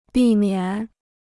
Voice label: "避免", Chinese

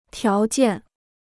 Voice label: "条件", Chinese

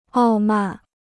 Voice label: "傲慢", Chinese

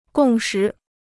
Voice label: "共识", Chinese

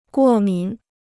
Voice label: "过敏", Chinese

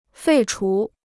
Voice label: "废除", Chinese

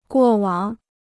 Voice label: "过往", Chinese

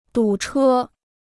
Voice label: "堵车", Chinese

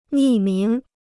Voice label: "匿名", Chinese

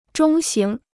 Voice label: "中型", Chinese

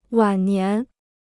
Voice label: "晚年", Chinese

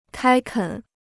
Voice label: "开垦", Chinese

